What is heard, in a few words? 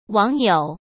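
"网友", Mandarin Chinese; chinese-flashcards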